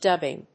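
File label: "dubbing", English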